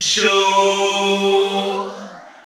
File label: Male FX Vocals; Houseworx